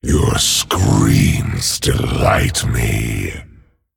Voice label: Male Dragon Threaten